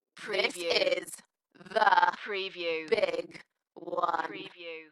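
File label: UK female, Dalekness, DJ Vocal; beanstalk-audio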